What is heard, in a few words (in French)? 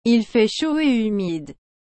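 "Il fait chaud et humide", French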